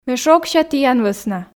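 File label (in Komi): ме шогся тіян вӧсна, Komi, female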